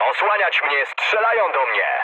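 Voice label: aircraft damaged, mood low, Polish speech; War Thunder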